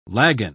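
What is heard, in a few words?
"lagan", British English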